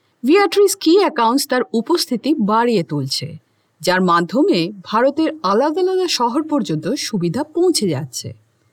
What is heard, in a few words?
Bengali Corporate Advert